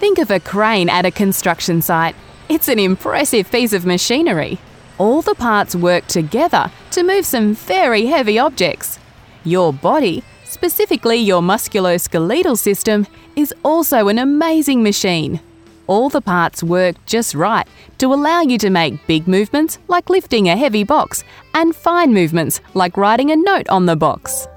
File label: Conversation science textbook elearning